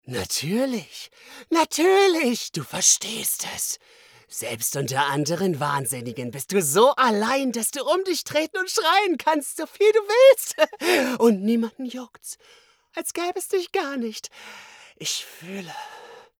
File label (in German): Charge Comic